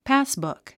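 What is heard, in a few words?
"passbook", English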